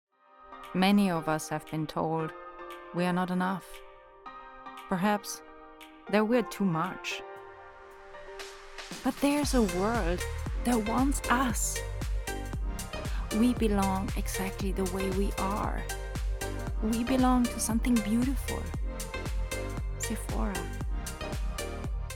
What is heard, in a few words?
Commercial